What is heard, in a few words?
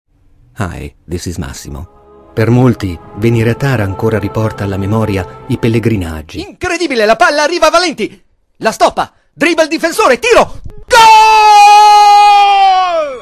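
Italian, male, commercial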